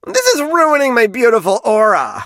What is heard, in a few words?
hurt vo